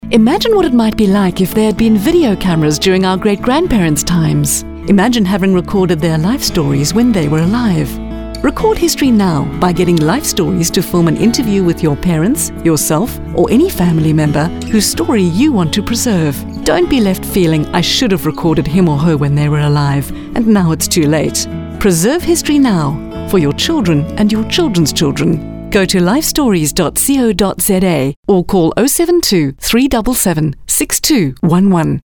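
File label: english South African female commercial; voice demos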